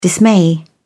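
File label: "dismay", English, British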